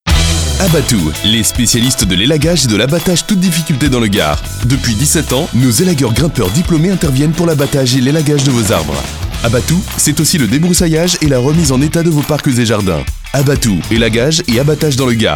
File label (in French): spot radio